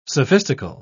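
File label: "sophistical", British English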